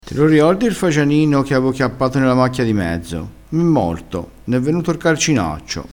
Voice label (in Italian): "carcinaccio", crespinese